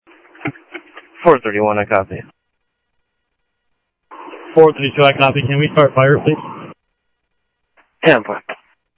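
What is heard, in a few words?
Sheriff East County, scanner audio trunk; South Scottsdale; MCSO - EAST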